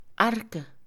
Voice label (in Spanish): arco, Mixe, Mujer; INALI